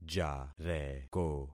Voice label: "jareko", concat syllables; Affixing experiment